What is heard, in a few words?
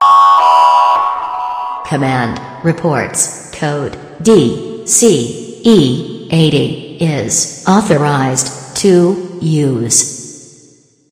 announcement; svencoop; st mission; core